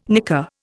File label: "nicker", Indian